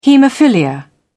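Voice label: "hemophilia", British English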